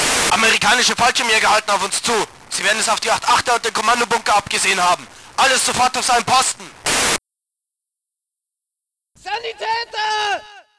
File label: German panic radio